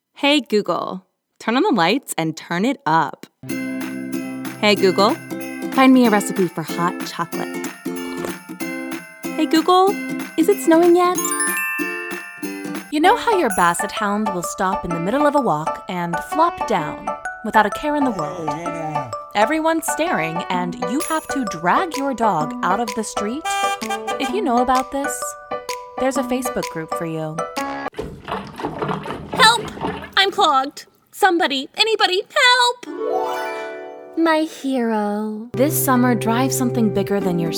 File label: Commercial, vo